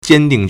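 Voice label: "坚定 jiāndìng", Chinese; adjective; firm; steady; staunch; resolute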